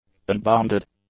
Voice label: "unbounded", English; adjectives